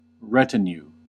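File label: "retinue", US English; pronunciations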